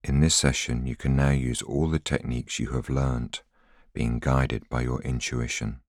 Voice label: voiceover